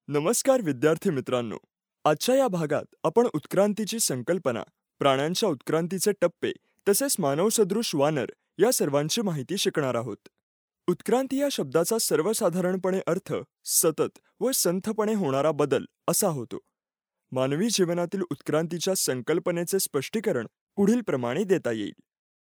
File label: male marathi voice over talent narrative